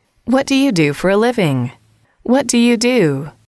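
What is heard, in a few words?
what do you do for a living, English, female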